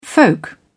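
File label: "folk", British English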